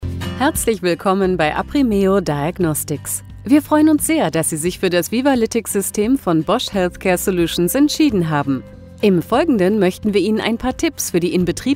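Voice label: German, explainer film; BOSCH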